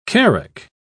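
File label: "carack", English, British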